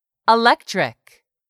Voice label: "electric", English; 3000 words

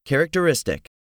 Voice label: "characteristic", English; 3000 words

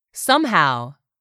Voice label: "somehow", english; 3000 words

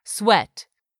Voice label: "sweat", English; 3000 words

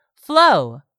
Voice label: "flow", English; 3000 words

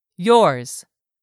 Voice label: "yours", English; 3000 words

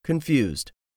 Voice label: "CONFUSED", English; 3000 words

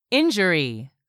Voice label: "injury", English; 3000 words

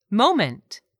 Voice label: "moment", English; 3000 words

